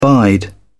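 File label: "bide", English, British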